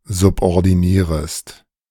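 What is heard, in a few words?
"subordinierest", German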